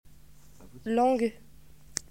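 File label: "tongue", French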